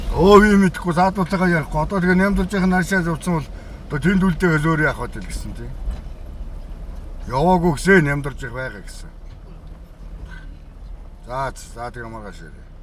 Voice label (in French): en voiture conversation tél, Mongolie Arkhangai